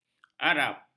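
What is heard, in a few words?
"arab", Malay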